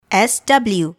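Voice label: "sw", English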